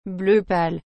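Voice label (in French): "bleu pâle", French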